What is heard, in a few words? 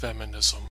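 "feminism", US English; pronunciations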